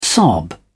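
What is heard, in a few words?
"sob", British English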